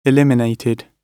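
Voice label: "eliminated", British English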